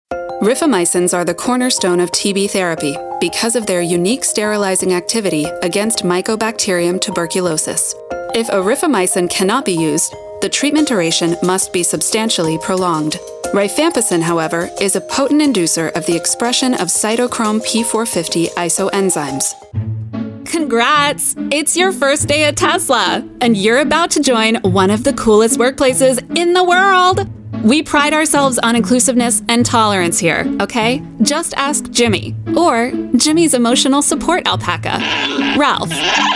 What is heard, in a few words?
E-Learning, female voices